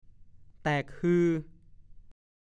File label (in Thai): "แตกฮือ", ภาษาโคราช; 1. แตกตื่น